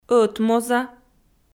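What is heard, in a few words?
"ӧтмоза" Komi, female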